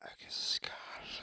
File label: whispers; voices; initiate harvest; khanat